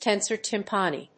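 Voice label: "tensor tympani", English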